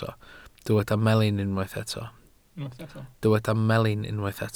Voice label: "melin", Welsh

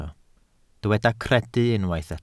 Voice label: "credu" Welsh

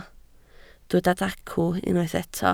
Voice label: "dacw", Welsh

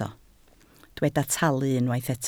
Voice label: "talu" Welsh

